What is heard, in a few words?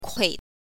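"kui", Mandarin pinyin, neutral tone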